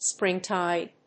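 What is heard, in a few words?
"spring tide", English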